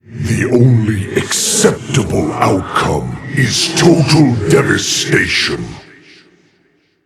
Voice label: Demon Greetings